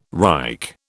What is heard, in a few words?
"Reich", British